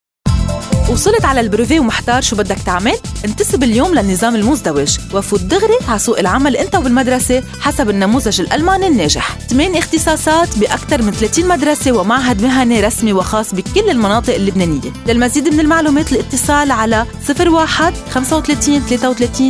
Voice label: Radio Ad; Raising Awareness